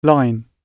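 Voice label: "line", British English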